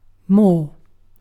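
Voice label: "more", British English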